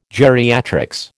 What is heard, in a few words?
"geriatrics", American